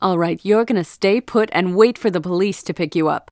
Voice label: vo cashier female busted; lucius ii the prophecy